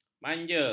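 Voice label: "manja", Malay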